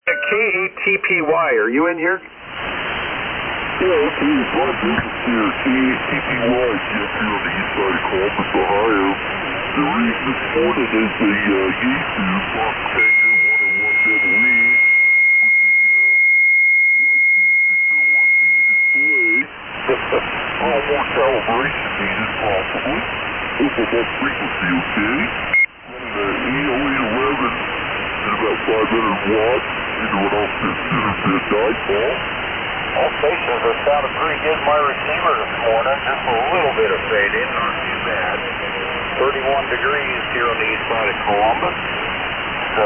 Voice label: Yaesu FT-101EE & Al-811 Amp; Columbus, OH.

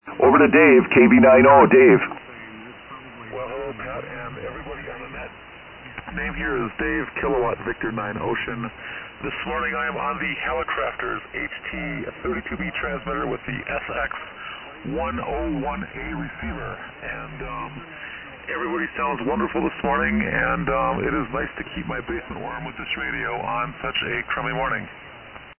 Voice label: Hallicrafters HT-32B SX-101A; DePere, Wi.

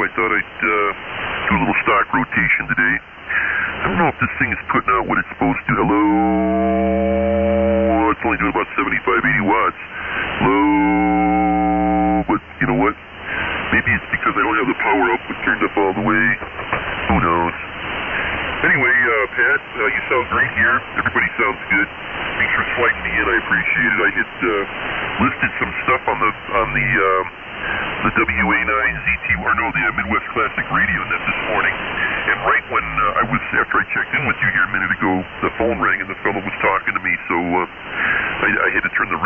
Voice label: Yaesu FT-101E

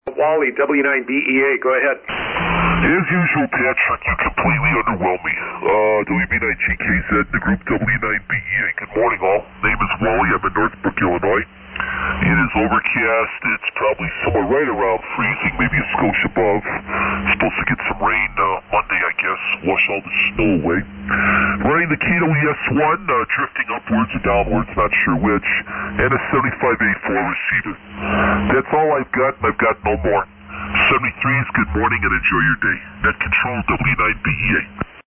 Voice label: Collins KWS-1 & 75A-4; Northbrook,IL.

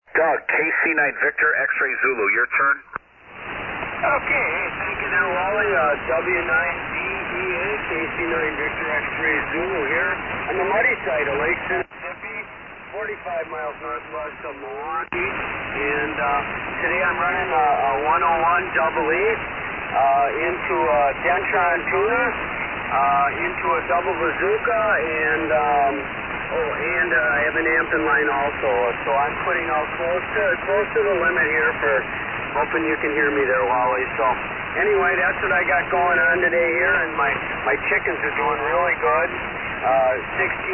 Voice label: Yaesu FT-101EE & Amp; Hustisford, Wi.

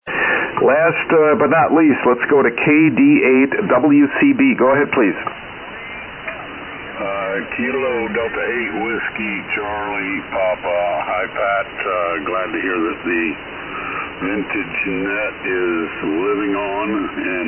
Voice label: Tempo 2020 Shure 526 Mic & TL-922 Amp; Lima, OH

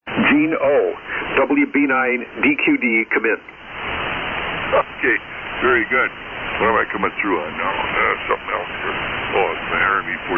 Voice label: Swan 500-C & D-104 Mic; Manitowoc, Wi.

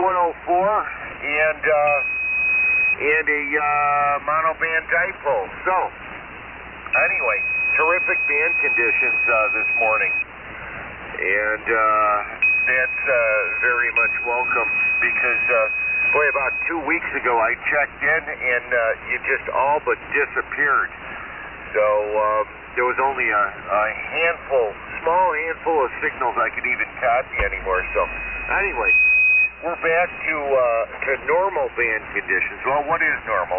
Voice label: Heathkit Twins SB-400 & SB-301; New Lenox, IL.

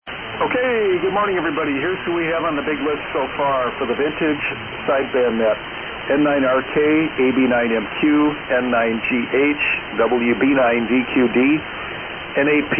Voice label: SSB Net Check Ins

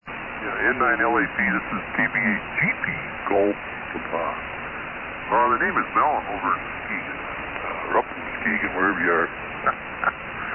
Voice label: Heathkit HW-100 & D-104 Mic; Muskegon, Mi.

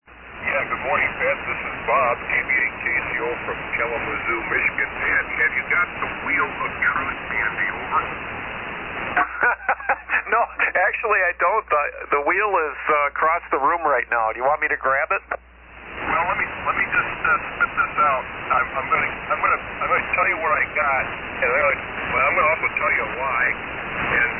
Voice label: Heathkit HW-12; Kalamazoo, Mi.

